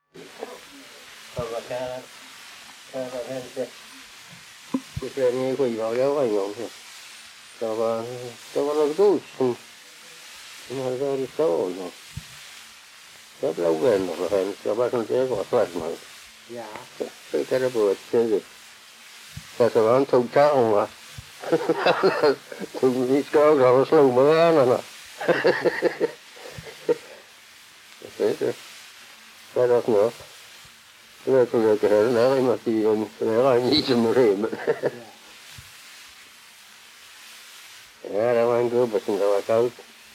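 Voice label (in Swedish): Allerum Skåne, dialekter svenska sagor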